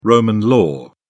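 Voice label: "roman law", English, British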